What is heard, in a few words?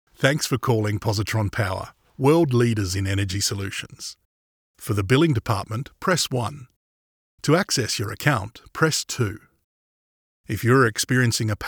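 English, Australian, male, IVR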